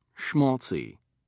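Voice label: "schmaltzy", British English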